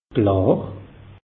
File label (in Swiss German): "klar", alsace; meteo